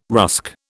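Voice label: "rusk", British English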